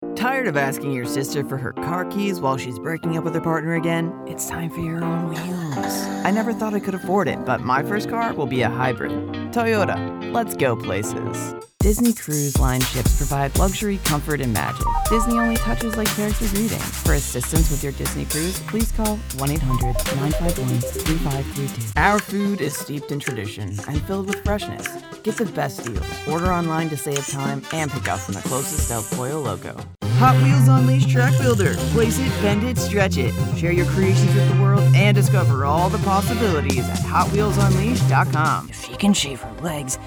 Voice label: Commercial VO

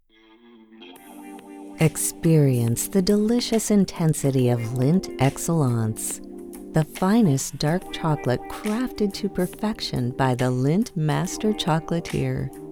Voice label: commercial

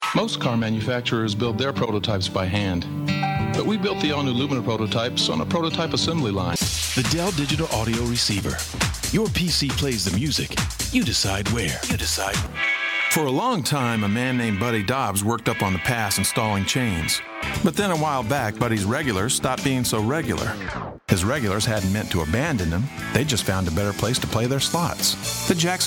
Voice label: commercial promo

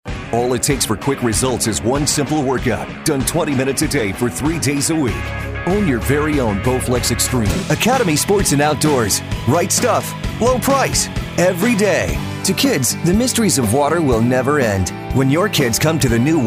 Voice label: Commercial; Demo

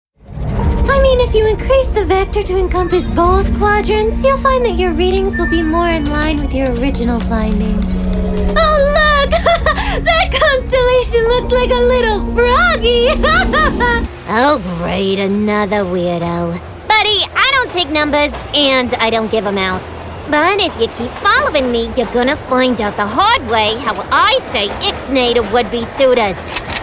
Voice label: Animation

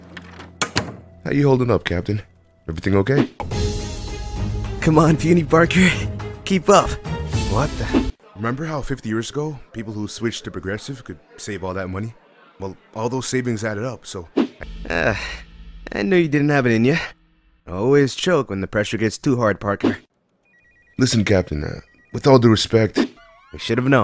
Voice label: Character

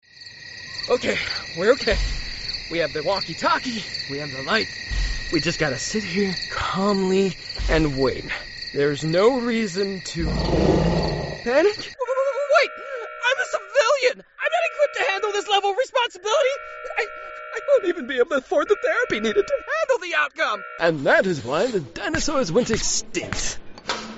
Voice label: animation

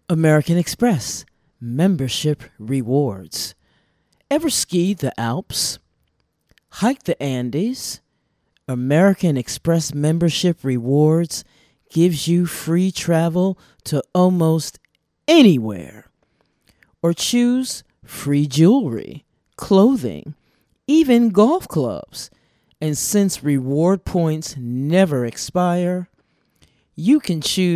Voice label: CONVERSATIONAL ANNOUNCER Woman

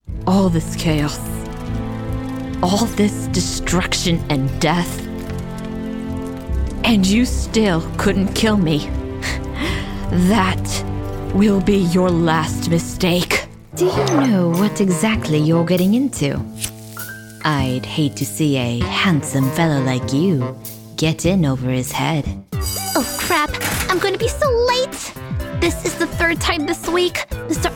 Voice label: Character

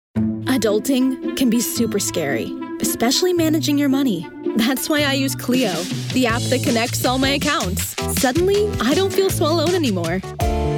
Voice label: Commercial

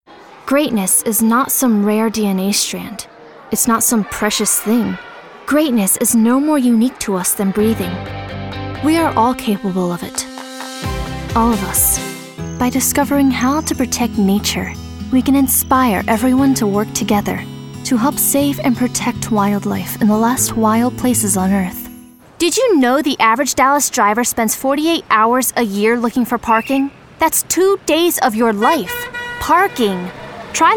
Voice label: Commercial

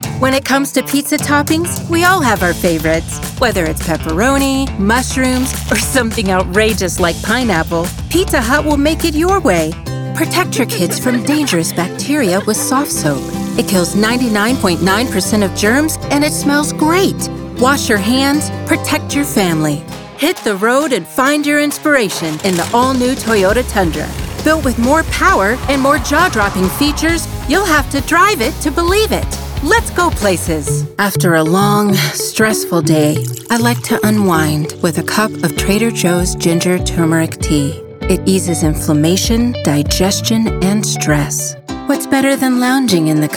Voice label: Commercial

